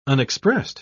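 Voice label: "unexpressed", British English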